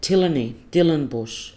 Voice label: "tilany"